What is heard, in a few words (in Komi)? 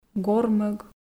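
"гормӧг", Komi, female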